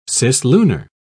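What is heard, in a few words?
"cislunar", British English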